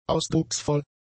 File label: "ausdrucksvoll", German; adjectives